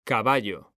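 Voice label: "caballo", Spanish, male; mekanta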